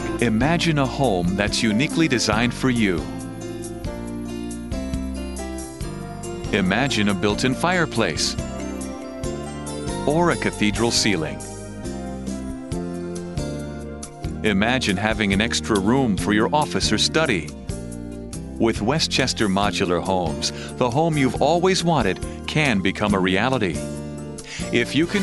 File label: real estate, voiceovers